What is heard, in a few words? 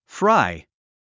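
"fry", English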